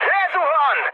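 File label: Hungarian aircraft destroyed mood high, speech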